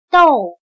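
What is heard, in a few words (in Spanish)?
"豆", chino mandarin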